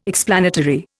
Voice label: "explanatory", Indian